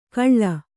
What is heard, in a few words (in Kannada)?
"ಕಳ್ಳ", Kannada